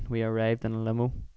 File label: belfast male; IViE